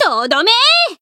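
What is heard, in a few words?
finish, Japanese; yazuki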